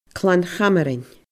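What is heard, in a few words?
"Clann Chamshroin", Scottish Gaelic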